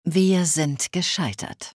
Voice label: We Failed, Speech German Advisors; Civ5

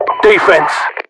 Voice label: defense, UK; english; voiceovers; mpvoice

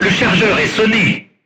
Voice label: voice message charger contused, crew tanks french

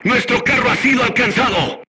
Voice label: voice message player tank hit, crew tanks spanish

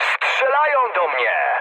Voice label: aircraft damaged mood high, speech pol; War Thunder

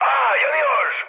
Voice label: on fire, mood high, speech tur; War Thunder

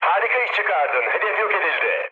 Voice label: bomb success, mood med, speech tur; War Thunder

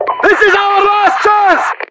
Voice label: losing, voiceovers english

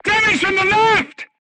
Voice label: voice message shot left, crew tanks portuguese

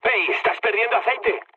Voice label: damaged oil, mood low, speech; War Thunder

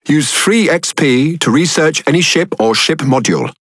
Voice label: Pirate Speech; World Of Warships

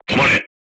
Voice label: voice message short stop, japanese

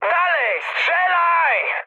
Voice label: attack position mood high, speech pol; War Thunder

